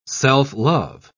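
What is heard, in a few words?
"self-love", British English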